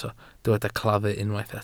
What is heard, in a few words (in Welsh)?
"claddu", Welsh